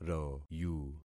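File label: "royu", concatenated syllables; Affixing experiment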